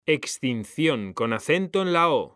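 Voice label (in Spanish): "extinción", Spanish; mekanta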